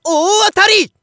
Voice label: Narration GAMBLE Big Win

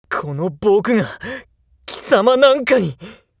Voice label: jakigan down, voice

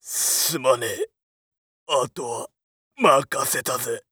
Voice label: macho down, voice

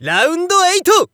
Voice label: Narration ROUND

